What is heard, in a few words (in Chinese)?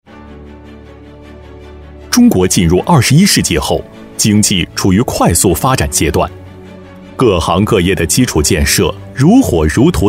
男 国语 配音, 浑厚 大气 时尚, 宣传; 上海国恩电力科技有限公司